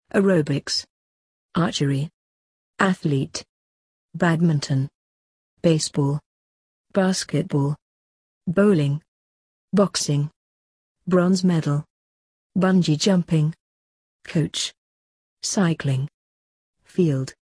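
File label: British; exercise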